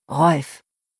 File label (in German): "Rolf", deutsch, jungennamen